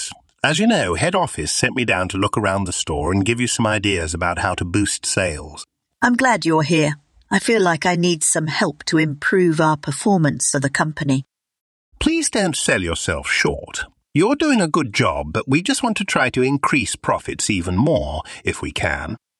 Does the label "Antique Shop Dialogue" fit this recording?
Yes